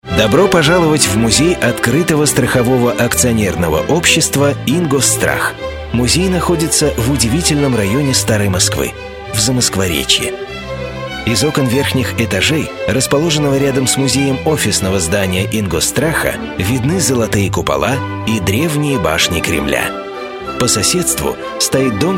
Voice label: russian voice over male narration

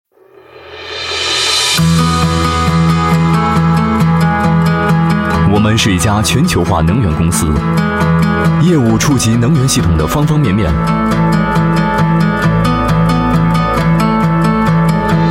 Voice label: chinese voice over male narrator

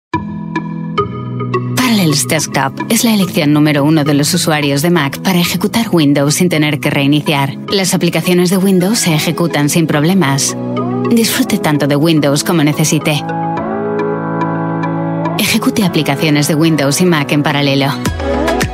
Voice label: spanish voice over female narrator

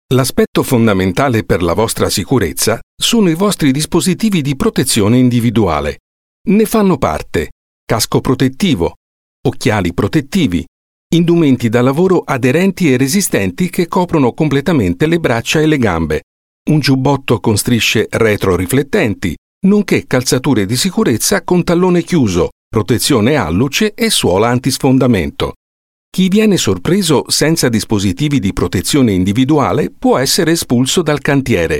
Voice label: italian voice over male elearning

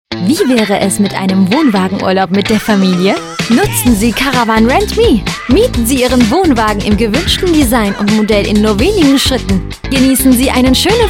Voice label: germany voice over female commercial

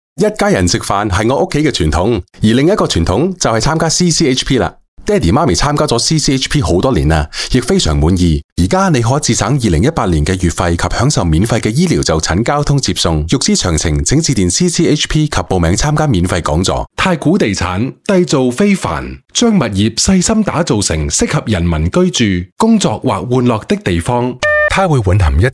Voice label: chinese voice over male narrator

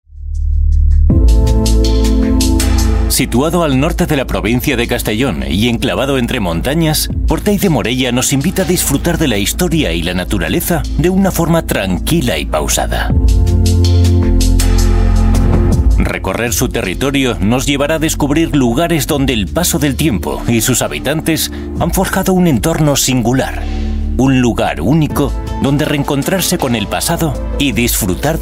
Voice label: spanish voice over male documentary

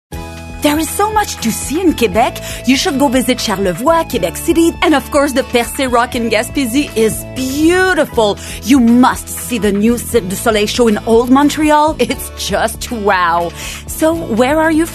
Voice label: english american voice over female commercial

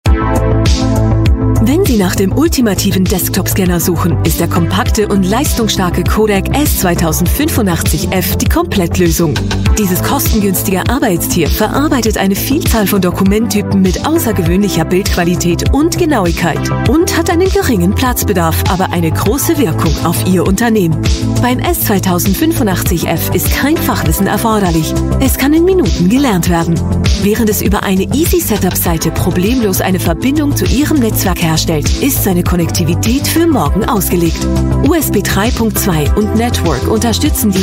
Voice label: german voice over female narrator

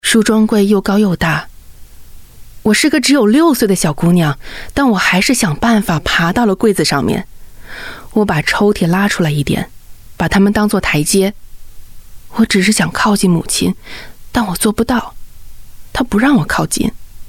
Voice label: chinese voice over female audiobook

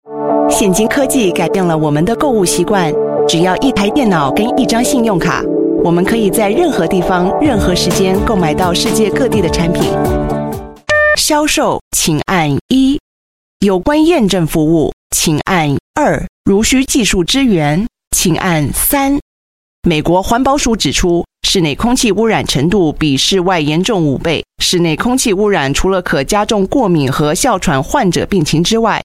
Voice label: chinese voice over female narrator

